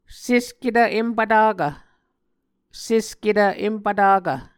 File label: "sesketv-empvtakv", Muscogee